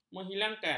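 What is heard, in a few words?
"menghilangkan", Malay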